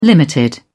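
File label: "limited", British English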